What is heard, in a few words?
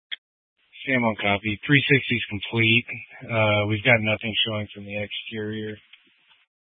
Tactical Incidents, scanner